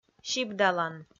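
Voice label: "сибдалан", Komi, female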